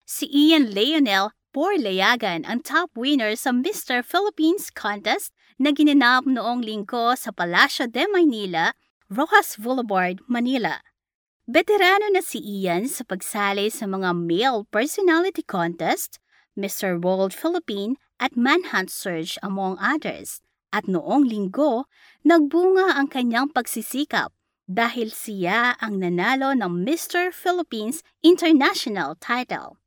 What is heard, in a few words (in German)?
Film Sprecherin Tagalog; Nachvertonung Film